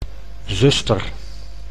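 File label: "zuster", Dutch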